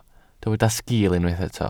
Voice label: "sgiil", Welsh